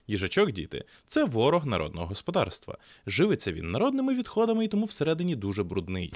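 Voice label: Samson, microphone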